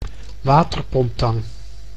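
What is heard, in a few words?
"waterpomptang", Dutch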